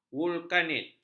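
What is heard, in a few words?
"vulkanit", Malay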